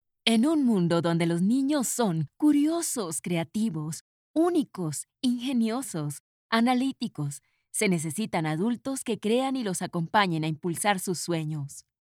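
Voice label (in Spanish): NIÑOS, cálida, amable, natural, alegre, infantil Female Voice; REDCOL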